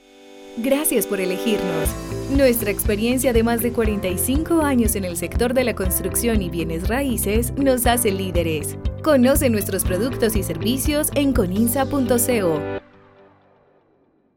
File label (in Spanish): Mensaje de Espera; Coninsa Ramon H